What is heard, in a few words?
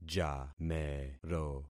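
"jamero", concatenated syllables; Affixing experiment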